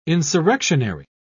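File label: "insurrectionary", British English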